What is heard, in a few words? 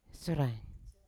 "syorai" to know, Yawa